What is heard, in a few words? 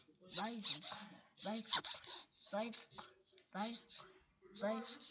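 "bicycle", spoken word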